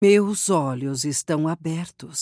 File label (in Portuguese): voice portugues brasileiro brazilian portuguese; diana; league of legends